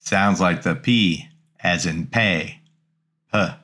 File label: Greek Consonants Pi; greek grammar